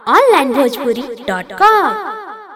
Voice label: voice track